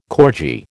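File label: "corgi", American English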